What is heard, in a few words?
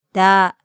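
"da", Japanese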